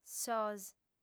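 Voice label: "sos", female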